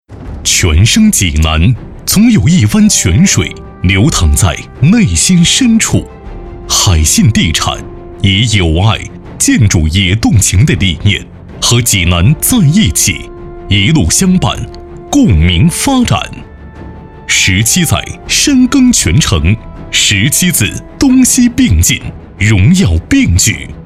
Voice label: 宣传片 元旦献礼 拜年; 海信

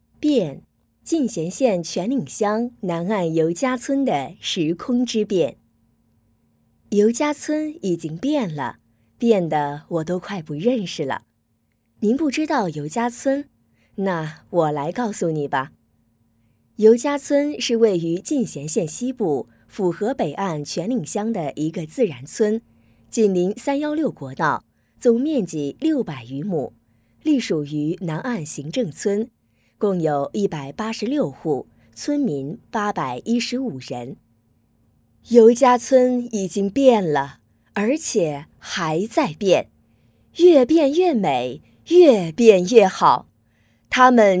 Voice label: 专题 讲解介绍

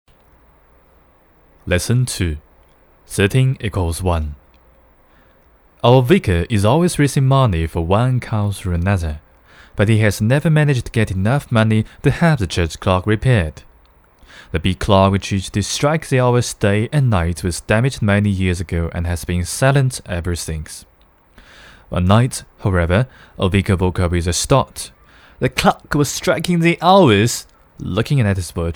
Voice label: 英语叙述

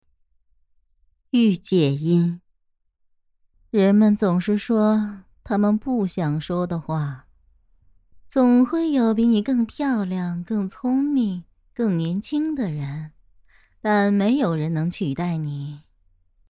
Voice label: 御姐音

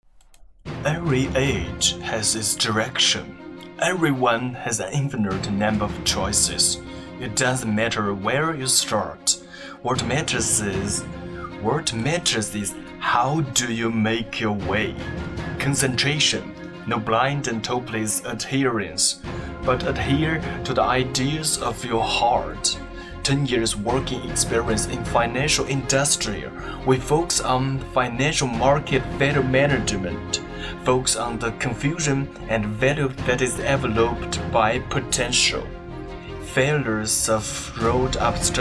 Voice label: 英文试音

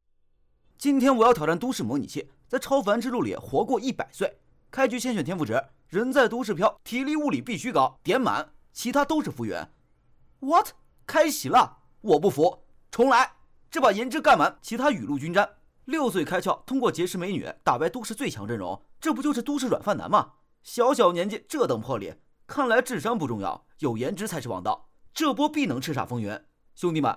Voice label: 游戏 广告

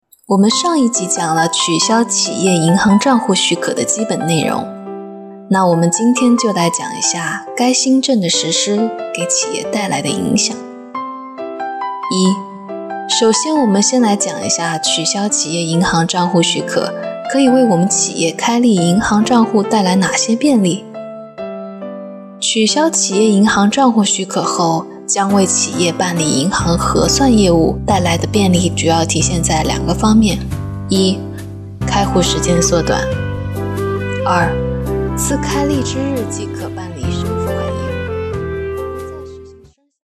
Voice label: 解说银行政策解读

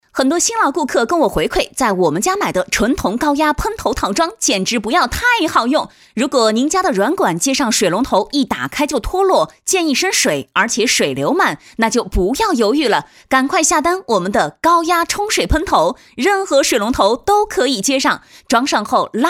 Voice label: 广告文案配音

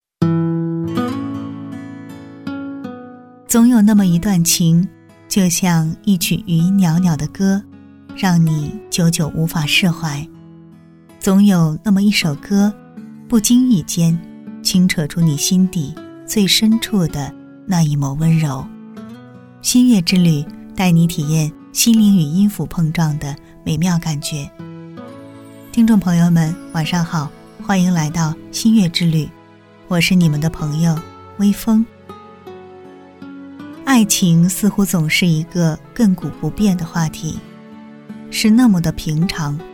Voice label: 音乐电台旁白

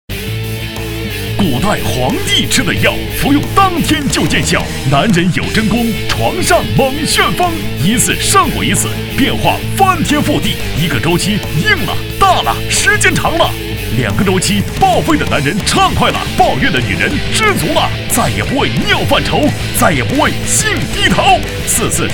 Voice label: 电视购物肾药叫线

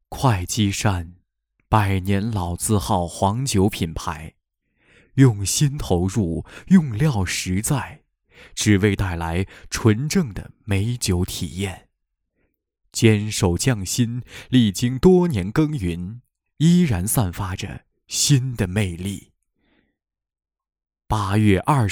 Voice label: 广告沉郁

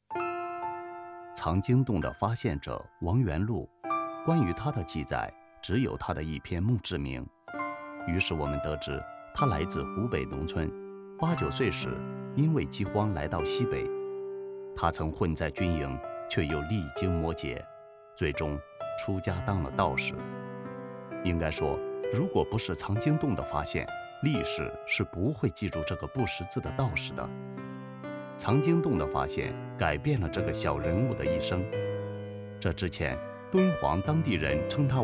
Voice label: 纪录人物娓娓道来

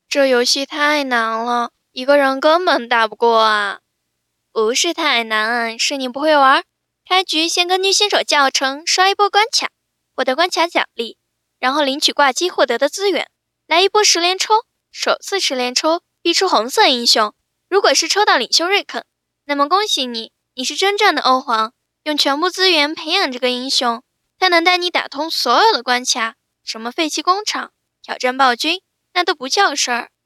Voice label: 游戏 配音

